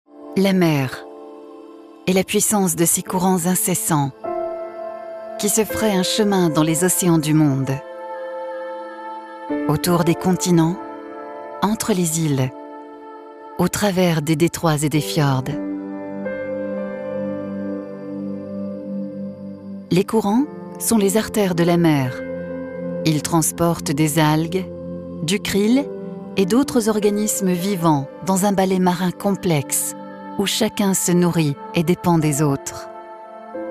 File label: voice over iles feroes voix off